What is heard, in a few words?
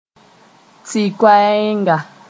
"tɩ-koɛɛnga", Moore; tree sp